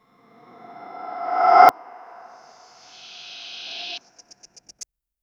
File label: echoing whisper watching